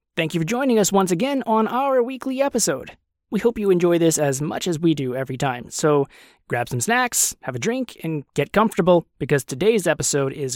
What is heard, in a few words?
Podcast